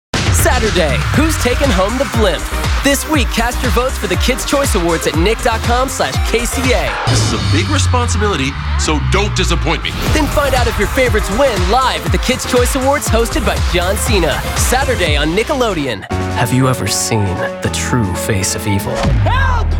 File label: men promos